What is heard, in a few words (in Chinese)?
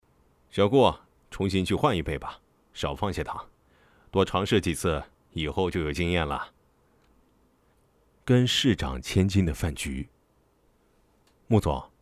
男 角色 总裁助理